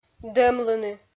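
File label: "дӧмлыны", Komi, female; kpv audio dict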